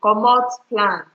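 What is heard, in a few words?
"comot plan", Nigerian Pidgin, female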